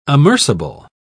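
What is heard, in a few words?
"amerceable", English, British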